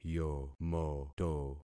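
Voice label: "yomoto", concatenated syllables; Affixing experiment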